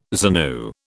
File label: "ZANU", British